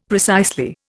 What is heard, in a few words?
"precisely", Indian; Dictionary